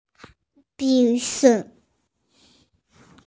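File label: "bi2 zi5", Mandarin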